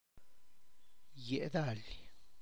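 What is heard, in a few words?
Tlacochahuaya Zapotec; dahlia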